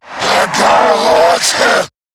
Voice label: Cover Me, en; Zariman Wraith